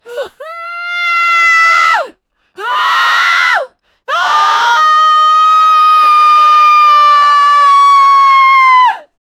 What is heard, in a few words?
sudden loud angry woman; dialogue